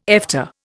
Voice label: "Efta", Indian